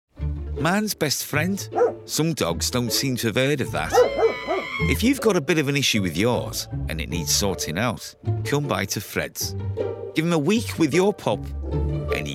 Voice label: Commercial Reel